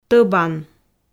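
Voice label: "тӧбан", Komi, female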